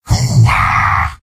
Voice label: soldier cheers; source engine; tf; mvm

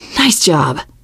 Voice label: nice job, teengirl; source engine; left 4 dead 2; survivor

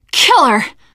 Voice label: reaction positive, teen girl; voice; source engine; left 4 dead 2; survivor

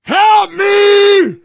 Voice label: help, player survivor voice biker

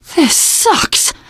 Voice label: reaction negative, teen girl; survivor voice

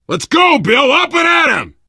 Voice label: revive friend loud, player survivor voice biker; source engine; left 4 dead 2

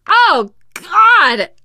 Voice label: boomer reaction, teengirl; source engine; left 4 dead 2; survivor

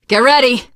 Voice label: incoming, teengirl survivor voice

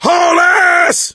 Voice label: yell run; voice; source engine; left 4 dead 2

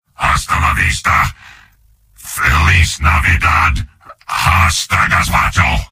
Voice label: soldier robot

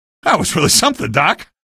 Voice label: notice grav gun kill, male; video games; npc; source engine; episodic; episode 1

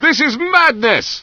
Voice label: fear; Source Engine; bshift; rosenberg

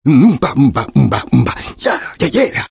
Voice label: taunt cong fun; engy; tf; taunts

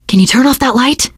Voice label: kill that light, teengirl, voice; survivor voice

